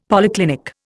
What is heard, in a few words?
"polyclinic", Indian; Dictionary